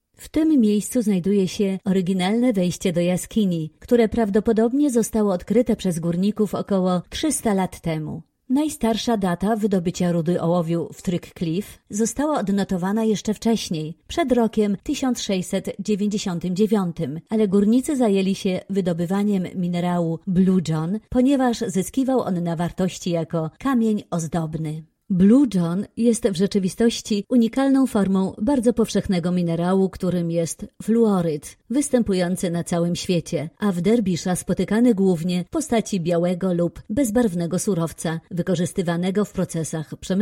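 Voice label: audioguide cavern, Polish; treak cliff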